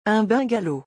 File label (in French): "un bungalow", francais; vocabulaire; le lexique des vacances